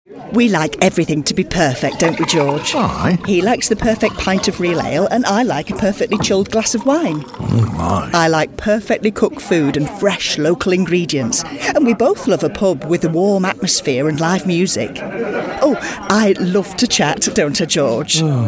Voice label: northern chatty wife

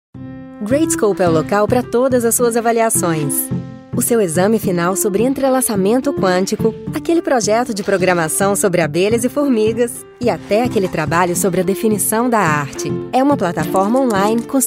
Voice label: brazilian voice commercial

